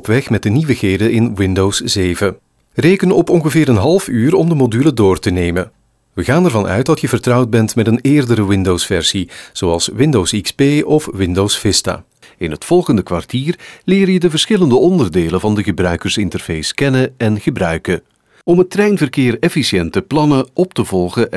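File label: flemish male e-learning; dutch; voice demos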